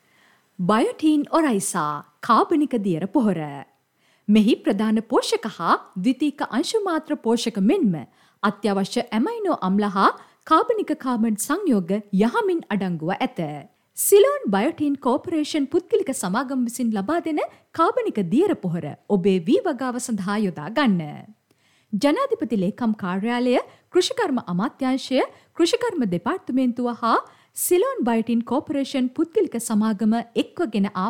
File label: FEMALE COMMERCIAL